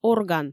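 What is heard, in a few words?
"орган", Komi, female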